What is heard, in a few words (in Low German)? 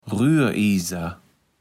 "Rühriser", Platt